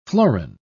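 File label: "florin", British English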